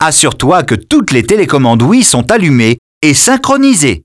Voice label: French, dialogue, numremote idle